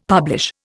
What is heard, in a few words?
"publish", Indian; Dictionary